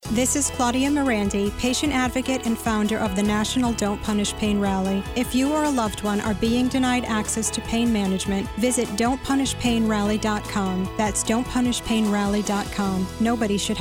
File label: Radio Commercial